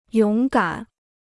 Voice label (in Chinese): "勇敢", Chinese